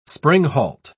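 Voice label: "springhalt", English, British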